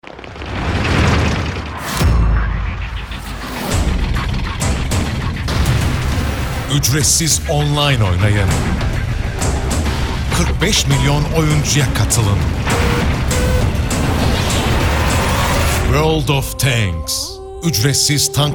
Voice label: turkish movie trailers